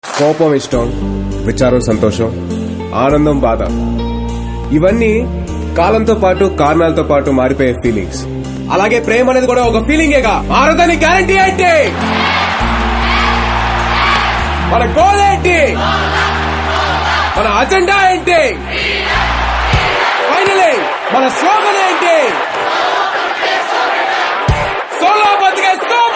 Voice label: Dialogue Telugu Ringtone; Sai Dharam Tej; SBSB

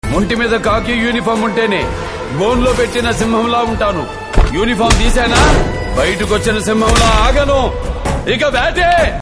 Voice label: Dialogue Telugu Ringtone; Ruler; NBK

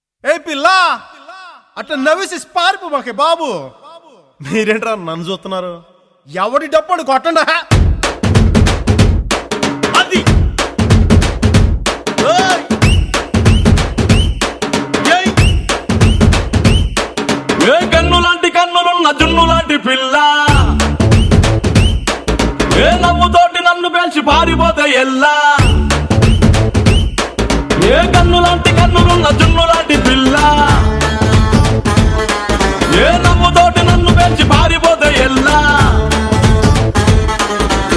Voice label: Voice Telugu Ringtone; Pawan

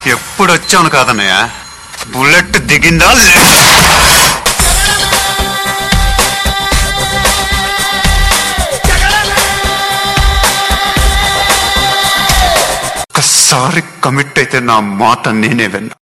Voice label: Dialogue Telugu Ringtone; Pokiri; Mahesh Babu

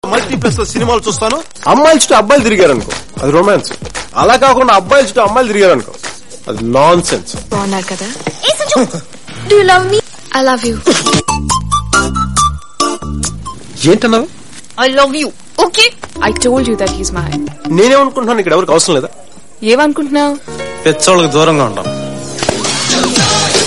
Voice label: Dialogue Telugu Ringtone; Jalsa; PK

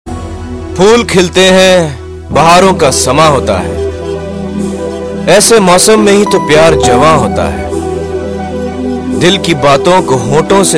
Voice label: Love Shayari Ringtone

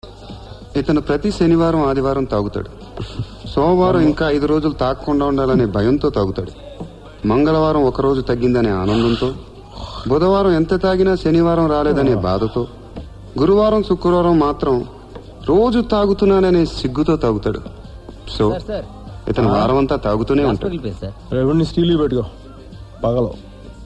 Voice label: Dialogue Telugu Ringtone; Jalsa; Mahesh

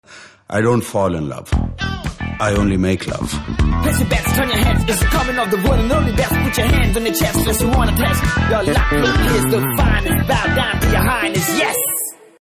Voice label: Dialogue Telugu Ringtone; Manmadhudu 2; Nagarjuna

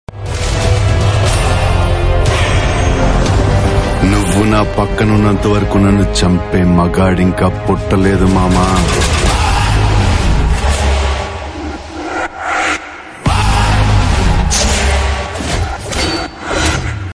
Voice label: Dialouge Telugu Ringtone; Baahubali 2; Prabhas

